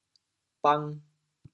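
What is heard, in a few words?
"bang2", Teochew, chaoyang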